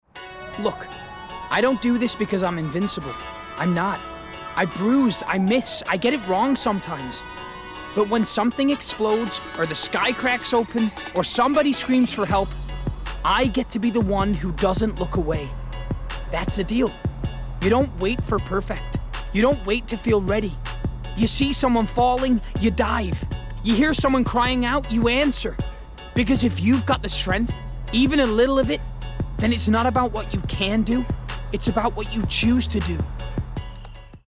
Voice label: Gaming US Superhero